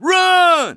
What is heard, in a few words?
grenade, English Voice